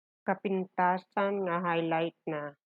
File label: Ilocano